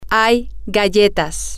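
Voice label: Spanish, there are cookies, female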